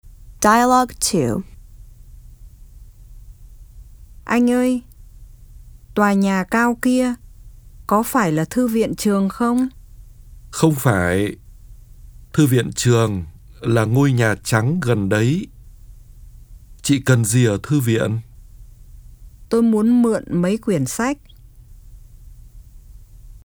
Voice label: Dialog